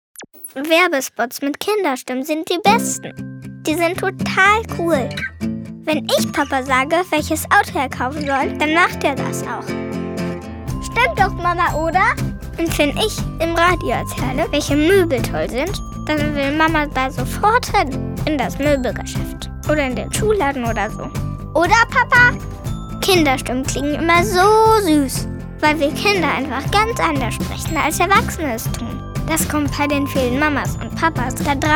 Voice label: Werbung

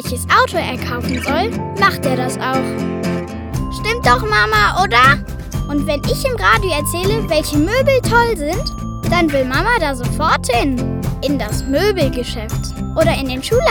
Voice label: Werbung Kinderstimmen

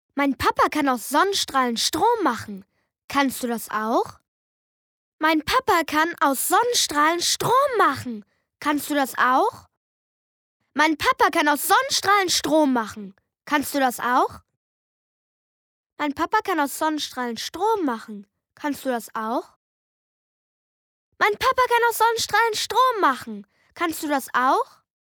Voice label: Werbung; sonnenstrom

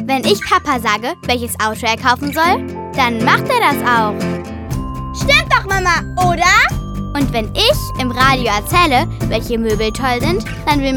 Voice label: Werbespot

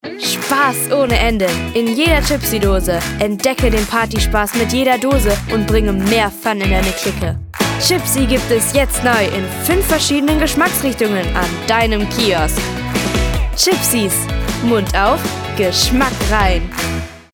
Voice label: Werbung; Chipsies

